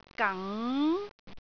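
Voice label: "gang2", Cantonese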